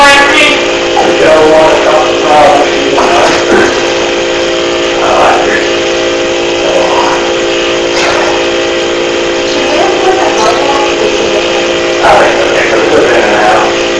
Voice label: Basement Unexplained Voice Inaudible Amplified